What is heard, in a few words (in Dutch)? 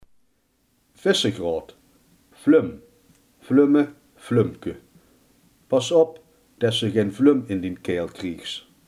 "visgraat", limburgse